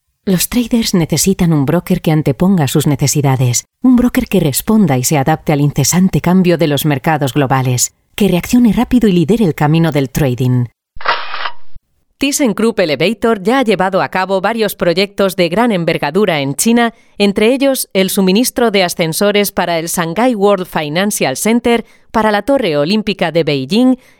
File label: European Spanish Female Voice; Clean Mix